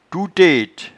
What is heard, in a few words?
"touetete", patois jura